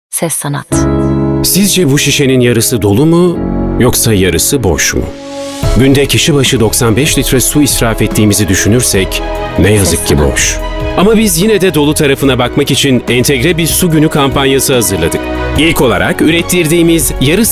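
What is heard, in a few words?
Turkish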